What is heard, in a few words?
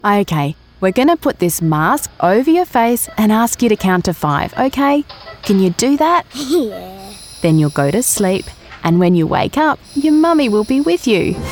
Friendly nurse talking to child